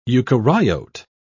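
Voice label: "eukaryote", British English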